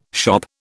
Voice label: "shop", British

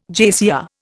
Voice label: "JCR", Indian

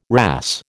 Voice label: "wrasse", American

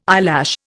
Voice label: "eyelash", Indian

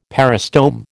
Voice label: "peristome", American

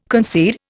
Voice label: "concede", Indian

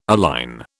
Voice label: "align", British

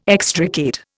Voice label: "extricate", Indian

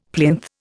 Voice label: "plinth", Indian; Dictionary

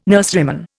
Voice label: "nurseryman", Indian

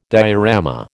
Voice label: "diorama", American

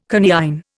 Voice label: "coniine", Indian

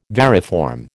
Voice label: "variform", American

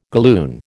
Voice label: "galloon", American

